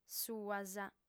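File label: "suas", Sardinian, female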